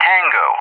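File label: "Tango", English; JTAC; Alphabet; DCS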